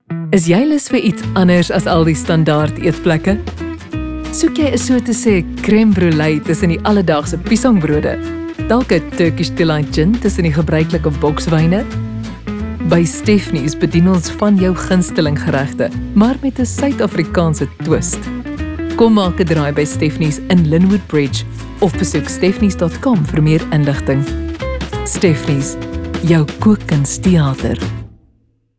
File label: RADIO ADVERTISEMENTS; stephanies; VOICE-OVER WORK